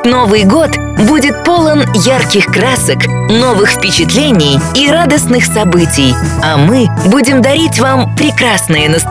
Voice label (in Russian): Поздравление С Новым Годом торговый центр женский